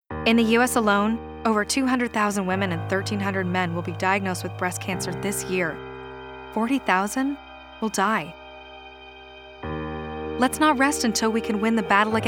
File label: breast cancer psa